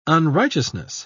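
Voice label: "unrighteousness", English, British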